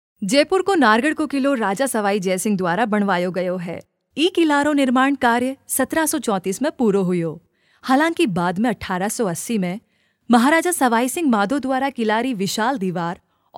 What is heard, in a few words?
Rajasthani